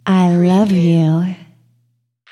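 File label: Female Love You Soft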